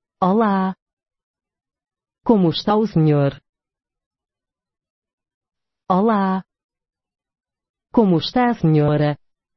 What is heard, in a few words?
hello european portuguese very formal